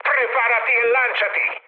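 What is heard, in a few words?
Italian bailout mood high, speech; War Thunder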